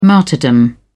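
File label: "martyrdom", British English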